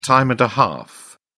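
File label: "time and a half", British English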